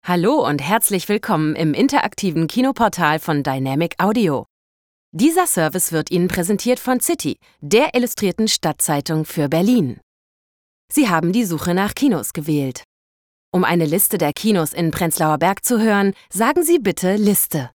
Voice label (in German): deutsch frauen telefon; sprecher